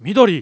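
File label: angry; Keio-ESD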